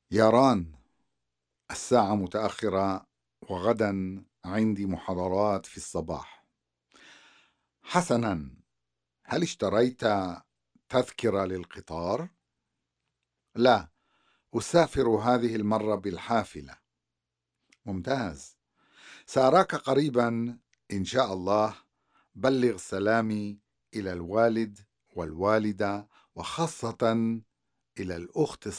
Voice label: Conversation; SALAMAAT